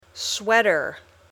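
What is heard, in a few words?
"sweater", English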